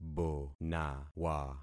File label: "bonawa", concat syllables; Affixing experiment